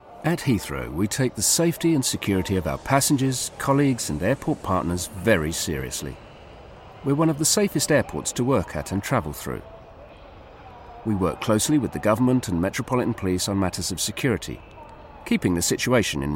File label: Corporate